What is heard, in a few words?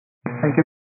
122 725MHz, scanner radio